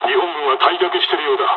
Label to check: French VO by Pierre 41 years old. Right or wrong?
Wrong